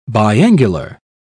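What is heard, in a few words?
"biangular", British English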